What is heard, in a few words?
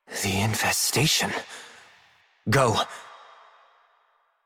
Operator Voice; en; Warframe; Jade Shadows Quest